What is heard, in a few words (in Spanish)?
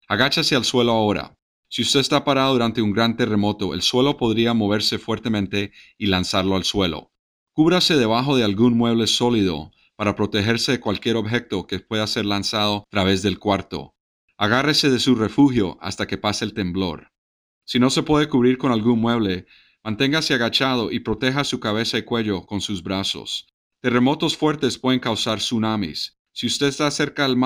Transmision Del Simulacro Sin Efectos Sonoros; Spanish Narration Only